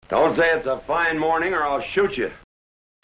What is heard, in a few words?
Speeches